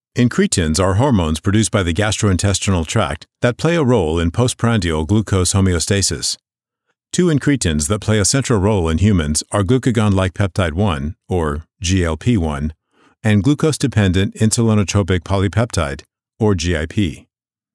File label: english american medical narration